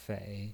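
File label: "fei" Mandarin Chinese, third tone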